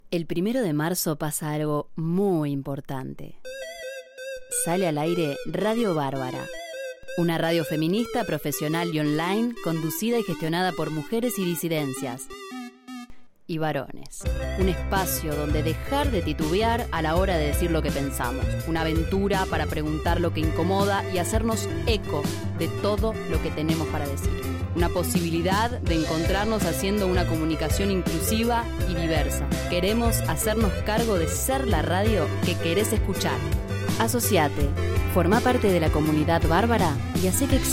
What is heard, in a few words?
spot